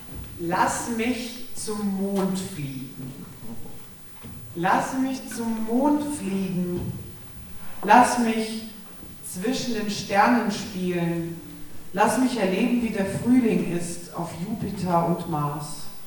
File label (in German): Ansage